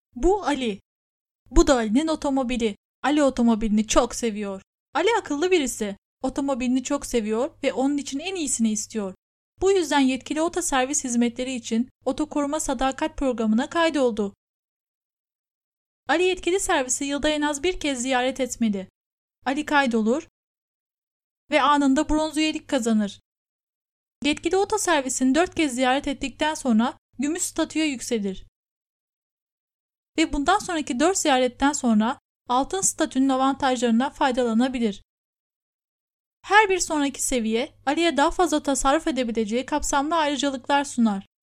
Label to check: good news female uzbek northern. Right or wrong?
Wrong